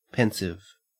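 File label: "pensive" English, Australian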